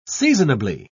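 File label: "seasonably", British English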